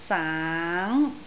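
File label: "saang2" Cantonese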